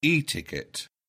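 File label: "e-ticket", British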